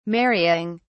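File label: "marrying", British English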